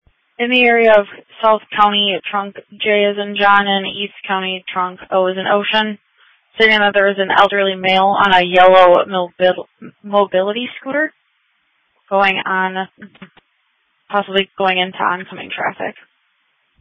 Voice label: Sheriff Dispatch